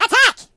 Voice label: "Fire", voices; hedgewars